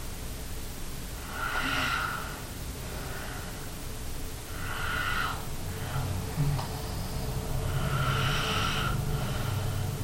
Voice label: male EVP; Tillie Pierce